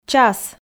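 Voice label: "час", Komi, female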